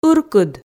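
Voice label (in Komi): "ыркыд", Komi, female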